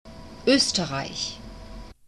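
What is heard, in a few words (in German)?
"oesterreich", German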